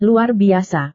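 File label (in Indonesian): "luar biasa", Indonesian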